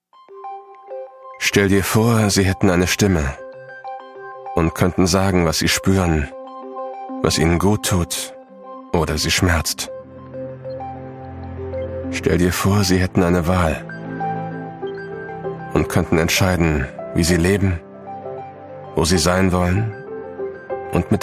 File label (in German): Social Spot, Commercial (Werbung), German; Animals Now - Demo-Social-Spot